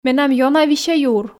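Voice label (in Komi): менам ёна висьӧ юр, Komi, female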